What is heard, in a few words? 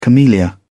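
"camellia", British English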